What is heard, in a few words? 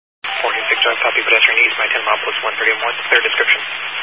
Highway Patrol, Phoenix Metro West Area, scanner